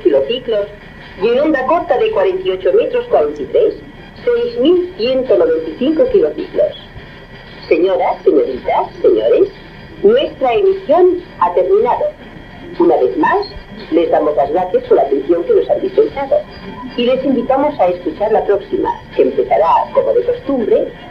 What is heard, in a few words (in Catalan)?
Tancament emissió R Andorra; 1961